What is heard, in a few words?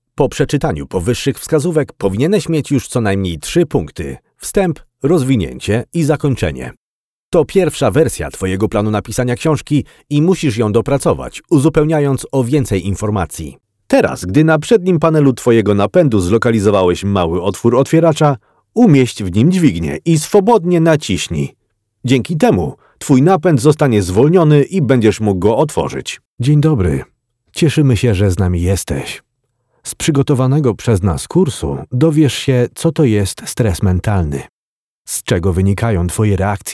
PL, E-Learning